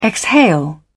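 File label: "exhale", British English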